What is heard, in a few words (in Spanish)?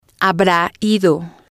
"habra Ido", spanish